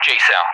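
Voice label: "JSOW", English; Weapon; DCS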